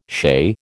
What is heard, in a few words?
"chez", American